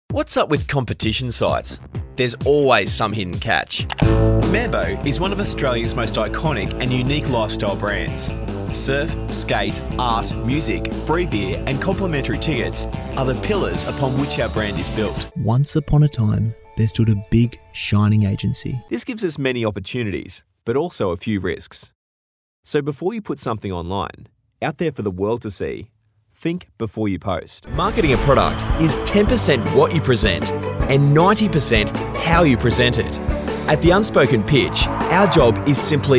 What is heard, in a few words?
Explainer Corporate